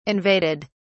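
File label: "invaded", English, uk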